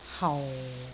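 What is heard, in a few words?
"hau4", Cantonese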